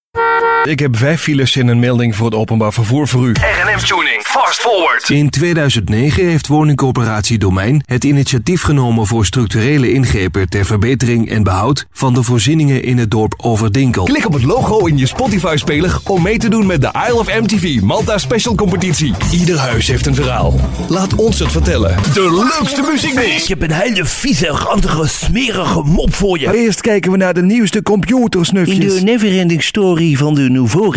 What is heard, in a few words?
Male Dutch voiceover general voicedemo